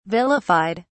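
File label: "vilified", English, British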